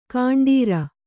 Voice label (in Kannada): "ಗಂಡೀರ", kannada